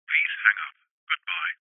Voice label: human voice call centre telephone recording male uk says please hang up goodbye